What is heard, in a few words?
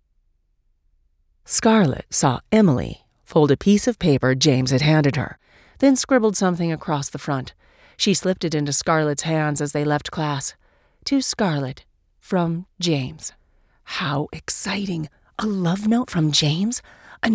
english american audiobooks